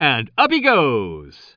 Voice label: commentator